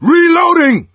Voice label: reload intense, survivor voice; Left 4 Dead 2; coach

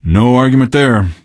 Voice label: answer, male; Garry's Mod; hl2

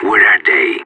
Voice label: radio query, separatist; bot

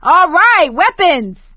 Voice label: spot weapons, survivor voice; Left 4 Dead 2; producer

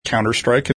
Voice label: "Counter-strike", taunt

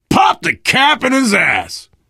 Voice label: kill confirmation, biker, survivor voice; Left 4 Dead 2

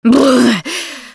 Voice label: adrenaline, russian; Producer

